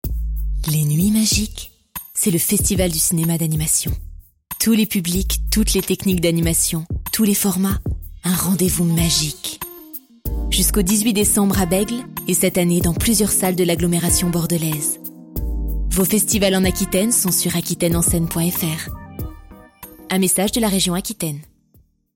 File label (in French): pub, voix off; aquitaine